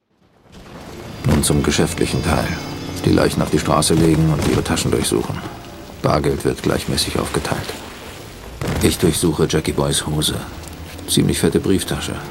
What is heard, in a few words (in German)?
Synchron; clive owen